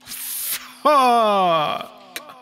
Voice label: "fuck" long, expletives; Voice

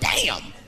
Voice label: "damn", expletives

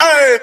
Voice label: "ay", voice

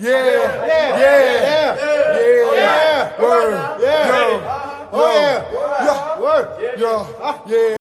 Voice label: "yeah yeah yeah yeah word yeah uh", voice; ooh ahh

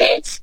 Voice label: robot

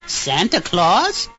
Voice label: santa claus, cartoon questioning; Voice; xmas

